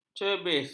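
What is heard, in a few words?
"cebis", Malay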